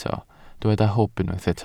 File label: "hwb", Welsh